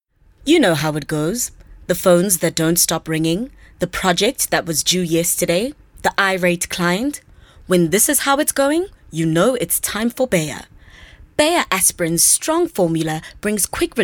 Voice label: English Corporate